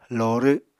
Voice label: "lorw", Apatani